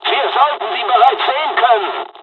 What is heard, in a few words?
dialog, dialogs german; War Thunder; midway